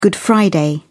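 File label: "good friday", British English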